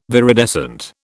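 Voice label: "viridescent", British English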